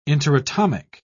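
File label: "interatomic", British English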